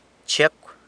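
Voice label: "Chiecw", San Lucas Quiaviní Zapotec; Chico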